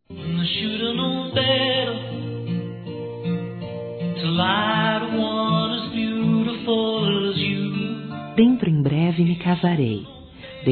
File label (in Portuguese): AGRADEC AOS PAIS F; Casamentos; fem; Telemensagens